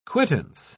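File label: "quittance", English, uk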